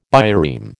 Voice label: "bireme", American

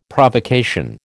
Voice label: "Provocation", American

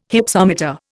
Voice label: "Hypsometer", Indian

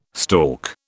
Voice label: "stork", British

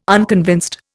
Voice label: "unconvinced", Indian

